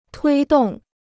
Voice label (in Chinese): "推动", Chinese